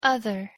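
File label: pronunciation "other", English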